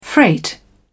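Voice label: "freight", British English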